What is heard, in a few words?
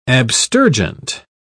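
"abstergent", British English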